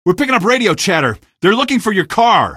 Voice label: chatter, male; Source Engine; video game; coast; barn